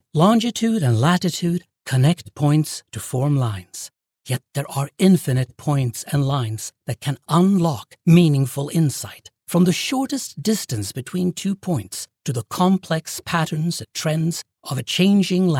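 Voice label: Swedish, English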